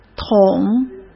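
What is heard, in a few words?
"捅", Mandarin Chinese; hsk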